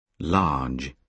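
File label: "large", British English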